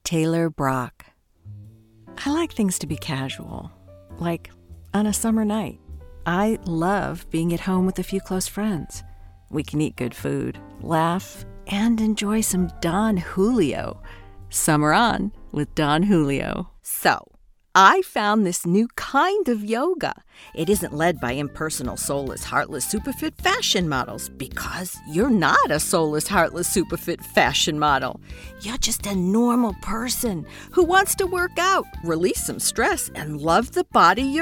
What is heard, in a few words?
Commercial